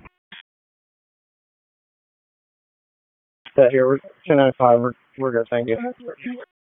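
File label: Police District Dispatch, p25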